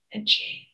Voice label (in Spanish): Voice Acting es F0